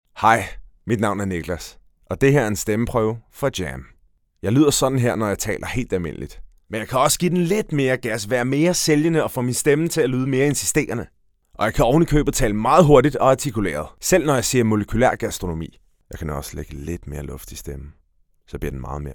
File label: Danish M; voice over